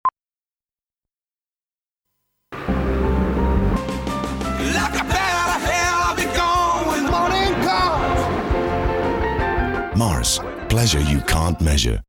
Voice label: commercial, voiceover; Mars